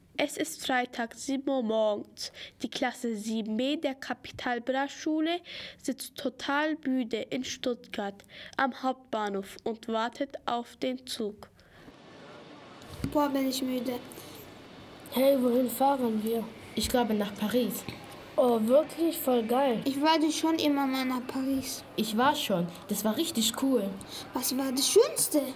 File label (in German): Hörspiel; Martinsschule